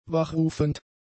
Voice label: "wachrufend", German; adjectives